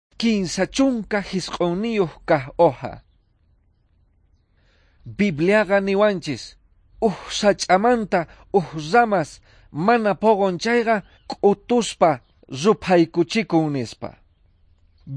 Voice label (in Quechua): quechua bolivian cochabamba; good news